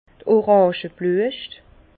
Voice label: "orange bluescht", Bas Rhin; vin; fleurs d’oranger

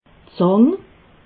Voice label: "zang", alsace

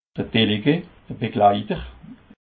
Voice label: "delegue", alsace

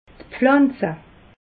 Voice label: "pflanze", alsace

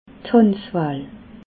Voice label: "handzwaal", alsace

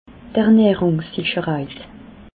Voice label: "ernahrungssicherheit", alsace

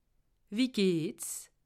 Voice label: "wie gehts", alsace

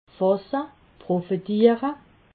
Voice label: "fasse", alsace

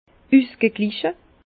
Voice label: "usgegliche", Bas Rhin; vin; équilibré

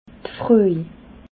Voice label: "froj", alsace

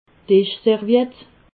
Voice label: "dischserviett", alsace

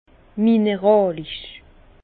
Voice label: "mineralisch", Bas Rhin

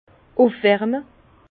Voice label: "ufwarme", Bas Rhin; vin; réchauffer

